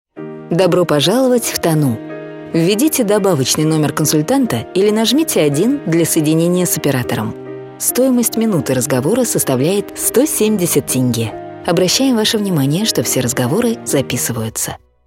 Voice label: IVR